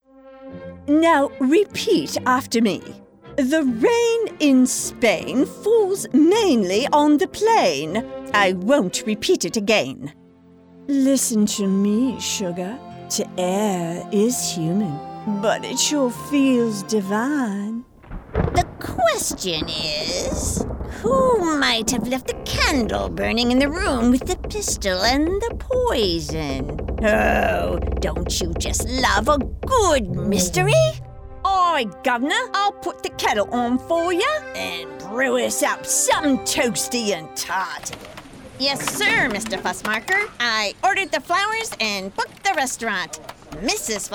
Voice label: character